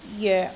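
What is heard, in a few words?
"joek6", Cantonese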